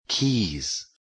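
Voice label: "keys", English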